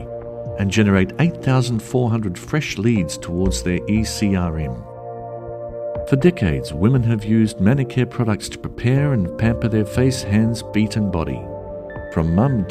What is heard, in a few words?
Voice Over with Music in Background music; Manicare Products